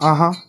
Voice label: "uh huh", male

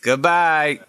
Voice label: "good bye", male; Voice; expletives

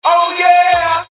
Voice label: "oh yeah", Voice

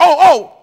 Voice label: "oh oh", Voice

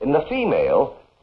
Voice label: in the female

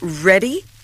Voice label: "ready", female; Voice; introductions

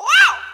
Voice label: "wow" girl; ooh ahh

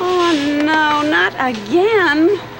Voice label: oh no not again, female; Voice; expletives

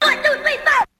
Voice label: 1 2 3 4 hi pitch; numbers and letters

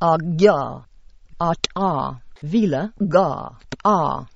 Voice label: a gah vila gah, gibbrish

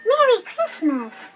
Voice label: merry christmas girl

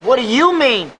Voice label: what do you mean, male retort; questions

